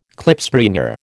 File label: "Klipspringer", American